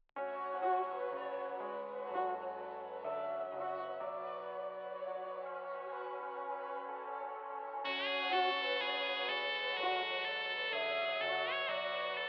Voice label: Commentary